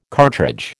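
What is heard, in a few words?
"cartridge", American English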